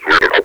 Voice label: sweep him out, combine soldier; hl2